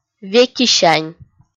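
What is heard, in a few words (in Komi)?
"векисянь", Komi, female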